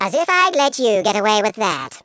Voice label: "just you wait", Patronising